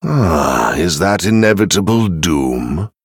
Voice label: Male Venthyr Thinking

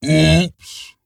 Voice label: Ogre Emote Oops; Boulderfist

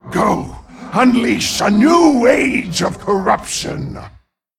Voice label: Female Tolvir Play

